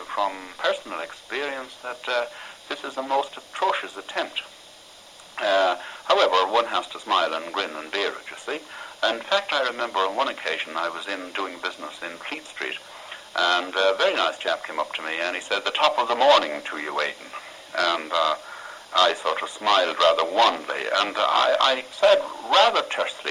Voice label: Ireland Dublin, english accents; Irish